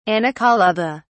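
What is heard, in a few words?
"anacolutha", British English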